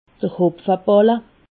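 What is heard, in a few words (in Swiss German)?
"hopfebolle", Alsatian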